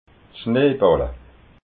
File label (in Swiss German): "schneeballa", alsace